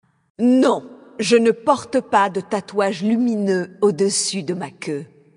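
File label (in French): Female Flirt, voix françaises; Draenei Sancteforge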